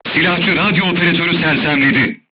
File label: voice message machine gunner contused, turkish